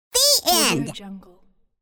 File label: cartoon voice the end